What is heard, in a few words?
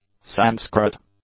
"Sanskrit", English; nouns